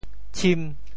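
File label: "tshim5", Teochew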